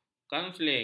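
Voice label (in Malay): "kaunseling", Malay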